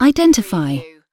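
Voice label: "identify", British female; lynne publishing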